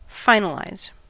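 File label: "finalize", US English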